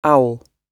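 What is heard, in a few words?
"owl", British English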